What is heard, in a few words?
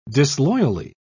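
"disloyally", English, British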